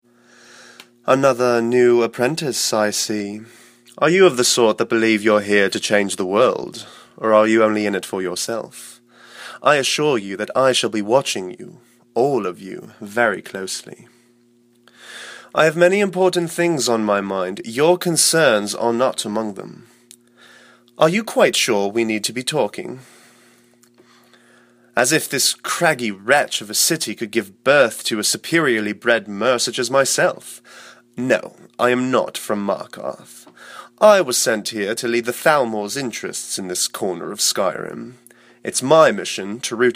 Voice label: altmer male audition, high elf voice